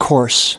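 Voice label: "coarse", US English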